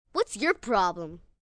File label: Whats Your Problem, Attitude, 1 girl, 9 yrs